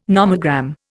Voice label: "nomogram", Indian

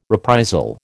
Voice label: "reprisal", American

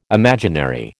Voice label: "imaginary", American

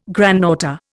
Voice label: "granddaughter", Indian

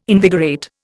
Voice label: "invigorate", Indian

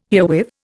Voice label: "herewith", Indian

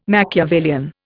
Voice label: "machiavellian", Indian

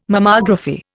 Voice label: "Mammography", Indian

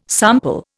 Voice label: "sample", Indian

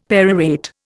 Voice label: "perorate", Indian; Dictionary

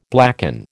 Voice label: "blacken", American

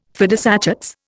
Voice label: "fidus Achates", Indian

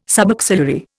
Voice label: "subaxillary", Indian

